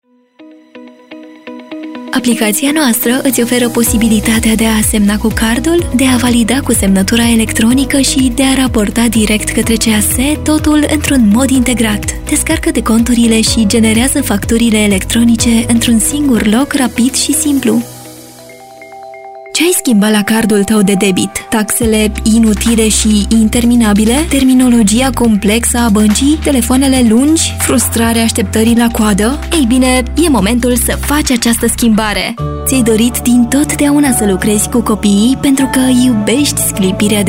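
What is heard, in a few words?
romanian e-learning, Mujer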